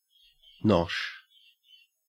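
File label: "nosh", Australian English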